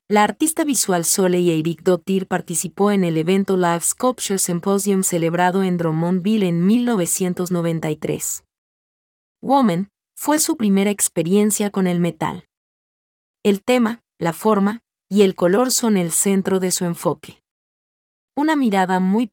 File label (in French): espagnol